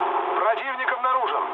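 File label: Russian enemy detected mood low, speech; War Thunder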